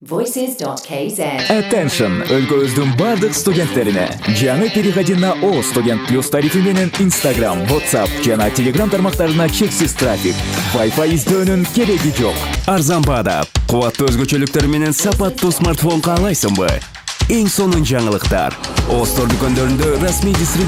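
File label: Kyrgyz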